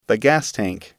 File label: "gas tank", English